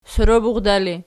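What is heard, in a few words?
soroburda ergative, Archi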